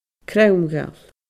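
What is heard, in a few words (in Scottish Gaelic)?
"Cromdhail", Scottish Gaelic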